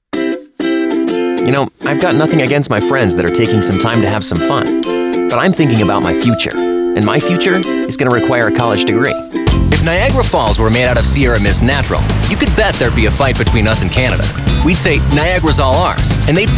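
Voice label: Commercial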